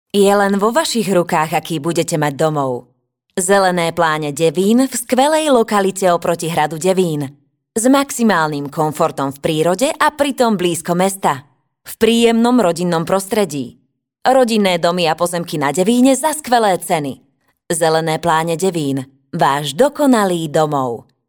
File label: locutora eslovaca slovak voiceover